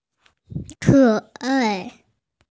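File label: "ke3 ai4", Mandarin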